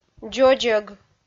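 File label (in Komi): "дзодзӧг", Komi, female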